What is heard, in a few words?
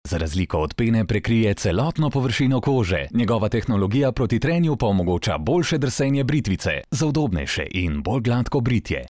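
slovenian male commercial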